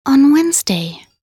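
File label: voc en week, English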